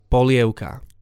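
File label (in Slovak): "polievka", Slovak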